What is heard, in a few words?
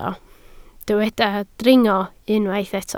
"dringo", Welsh